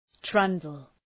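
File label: "trundle", English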